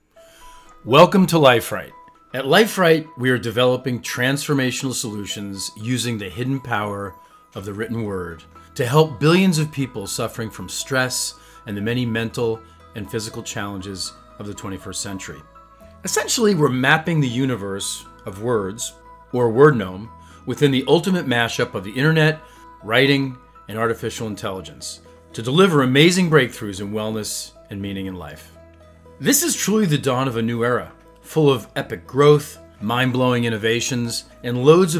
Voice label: narrative